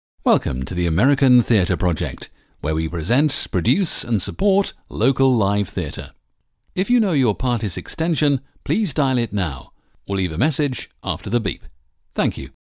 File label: ivr